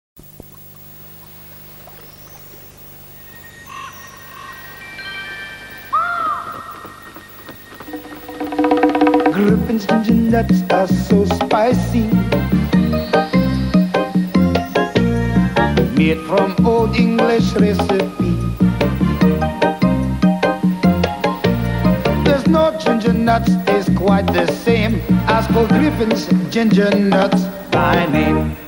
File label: tv advert; griffins gingernuts